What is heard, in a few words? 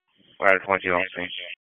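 FIRE Operations, p25; FIRE OPS 2; wake p25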